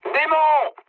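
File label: French enemy detected mood low; War Thunder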